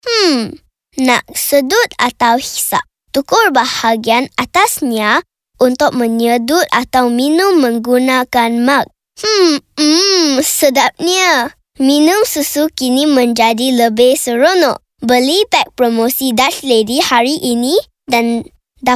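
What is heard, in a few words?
Malay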